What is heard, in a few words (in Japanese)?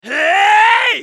ソルジャー男 攻撃長; リボルドウェソルジャー